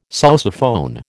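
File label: "sousaphone", American English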